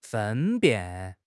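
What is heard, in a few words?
"分辨", Chinese; HSK6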